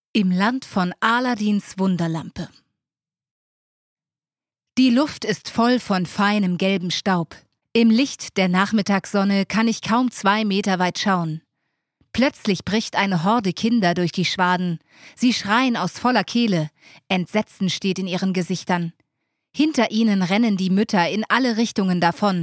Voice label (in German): Ausschnitt Hoerbuch; Reisen bewegt